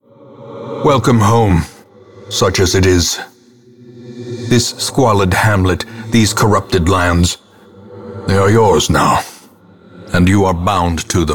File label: narr tut first town; ancestor